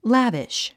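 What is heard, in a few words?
"lavish", English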